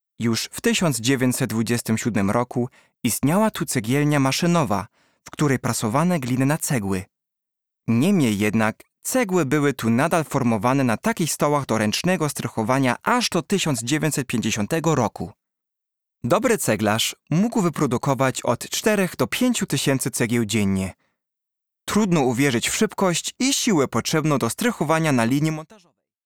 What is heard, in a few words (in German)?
polnische audioguide